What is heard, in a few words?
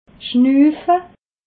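"schnuufe", Haut Rhin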